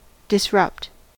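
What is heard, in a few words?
"disrupt", US English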